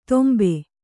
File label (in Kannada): "ತೊಂಬೆ", Kannada